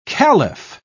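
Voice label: "khalif", British English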